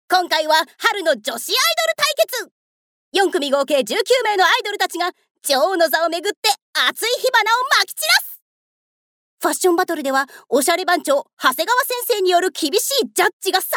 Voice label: narration power funiki

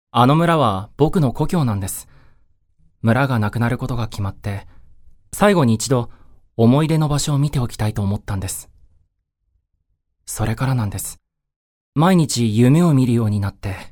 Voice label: m-dansei

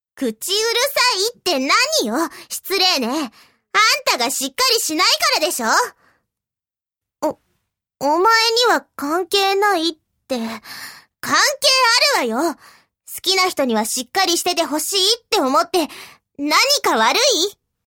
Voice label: seikaku f tundere; ツンデレ

